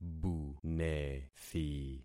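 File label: "bunethi", concatenated syllables; Affixing experiment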